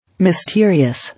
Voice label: "Mysterious", English